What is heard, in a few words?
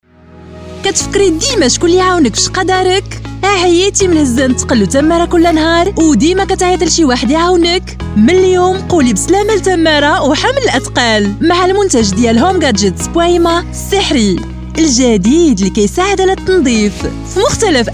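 Arabic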